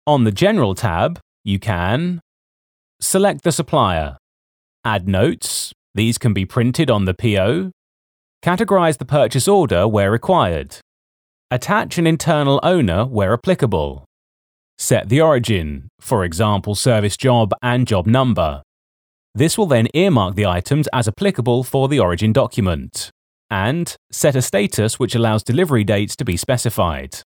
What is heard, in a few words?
Internal Sortware e-Learning